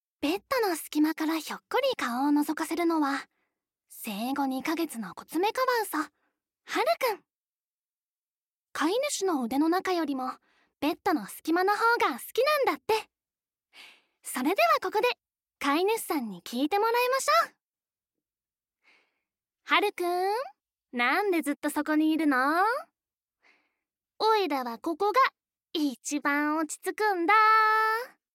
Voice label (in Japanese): ナレーション 動物; ナレーション①動物